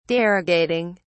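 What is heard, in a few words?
"derogating", British English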